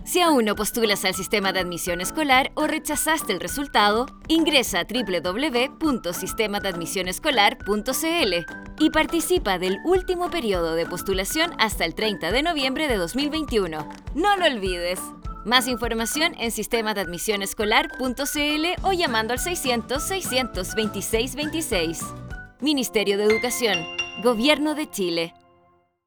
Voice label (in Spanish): Locucion; Postulacion Periodo Complementario